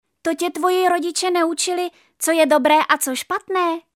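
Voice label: female Czech drama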